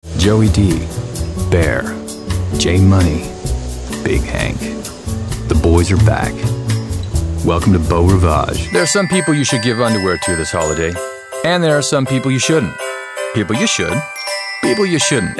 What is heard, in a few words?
voiceover actor